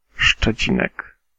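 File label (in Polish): "Szczecinek" Polish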